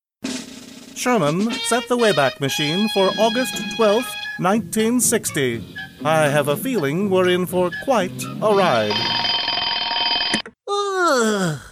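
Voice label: English US, male, characters; voice over; american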